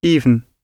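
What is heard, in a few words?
"even", British English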